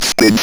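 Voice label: ROBVOX, robot voice; E-Lab; X Static Goldmine 1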